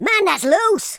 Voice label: "stupid", Madchester

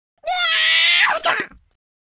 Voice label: idle, Italian

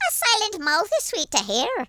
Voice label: "coward", Irish; speech

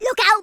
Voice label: grenade, speech, Madchester

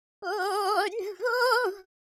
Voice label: scared, Geordie; speech

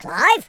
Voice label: hello, Footy Fan speech

